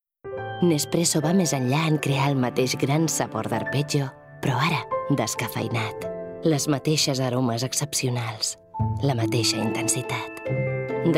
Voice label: female catalan coffee comercial; ideasonora